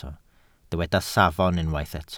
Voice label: "safon", Welsh

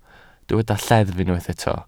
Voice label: "lleddf", Welsh

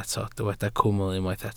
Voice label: "cwmwl" Welsh

